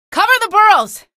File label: alert antlions, female; episodic; episode 1; Source engine